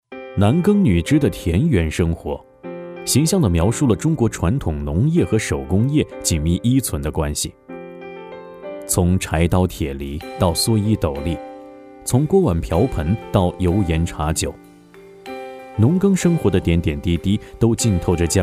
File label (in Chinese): 人文类 纪录片